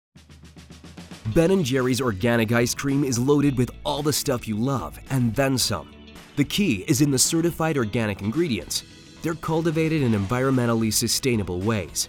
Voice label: US VO